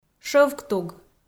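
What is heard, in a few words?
"шӧвктуг", Komi, female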